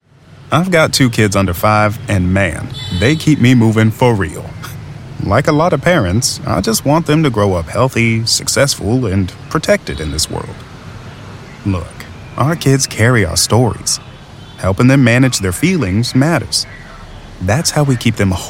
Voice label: Dad, African American, Real, Father Balanced Medium; LANDR; First 5 California